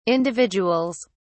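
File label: "individuals", British English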